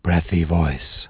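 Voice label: breathy, human voice, voice quality; EGG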